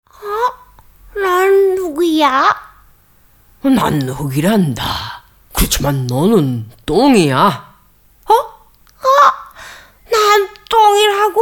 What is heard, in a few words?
cartoon voice koreanisch; Muttersprache Deutsch, Koreanisch; Sprecher in Frankfurt a. M.